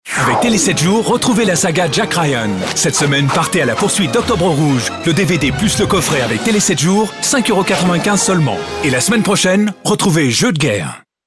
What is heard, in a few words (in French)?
VF promo dynamique voix off voice over; Tele 7 jours